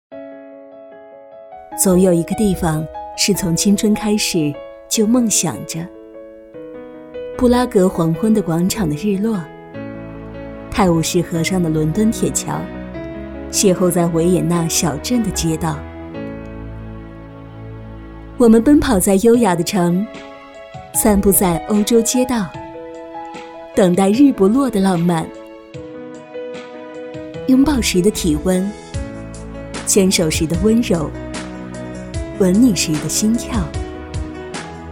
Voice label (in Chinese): 纪录片 大气; 专题; 女; 纪录片公主道